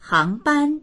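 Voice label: "hang2ban1", Mandarin Chinese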